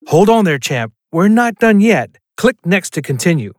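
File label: elearning hold on there champ, Male Voice Actor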